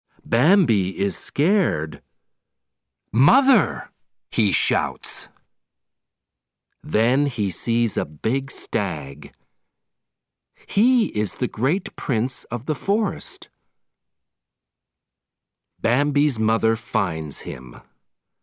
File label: Audio British English